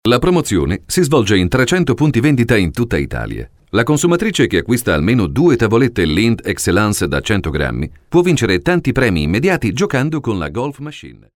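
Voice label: Italian, male, elearning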